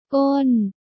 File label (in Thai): "ก้น", Thai